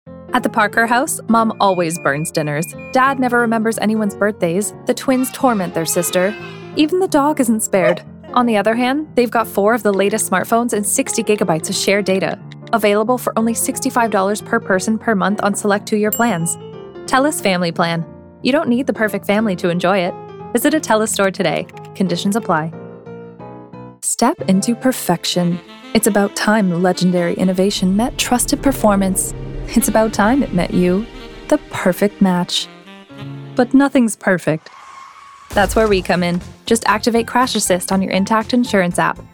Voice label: Commercial, women